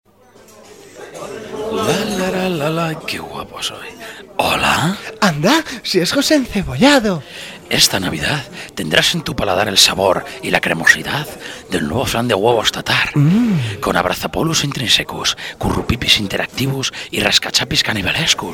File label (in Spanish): Publicidad; Flan de huevos tatar